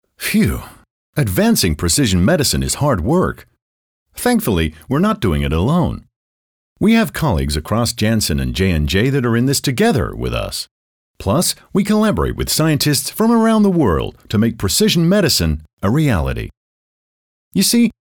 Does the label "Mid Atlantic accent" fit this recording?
Yes